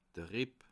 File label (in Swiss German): "ripp", alsace